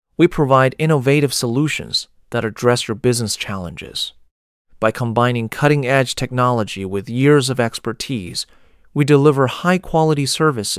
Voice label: Gentle voiced Man Warm Breathy Soothing